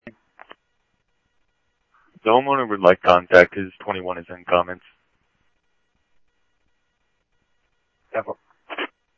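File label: police department, scanner audio trunk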